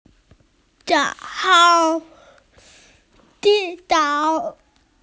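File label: "hello", Mandarin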